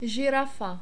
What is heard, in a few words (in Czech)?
zirafa, czech